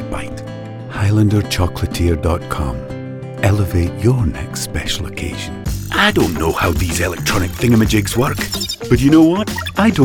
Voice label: Commercial, British and Scottish accents